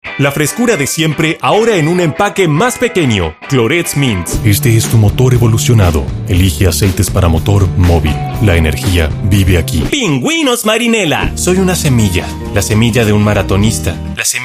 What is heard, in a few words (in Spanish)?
Neutro